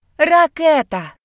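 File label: "rocket", British English; gcompris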